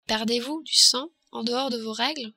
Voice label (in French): perdez vous sang, french